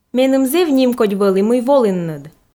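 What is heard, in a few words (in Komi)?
меным зэв нимкодь вӧлі мый волінныд, Komi, female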